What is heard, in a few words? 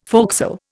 "forecastle", Indian